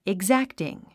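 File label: "exacting", English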